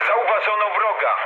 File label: enemy detected mood low, speech pol; War Thunder